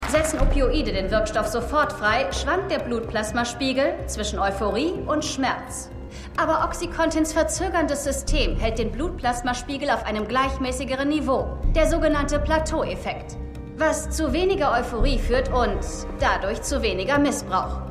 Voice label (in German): Rednerin; Dopesick